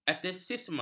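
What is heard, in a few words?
"ateisme", Malay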